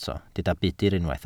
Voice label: "budr", Welsh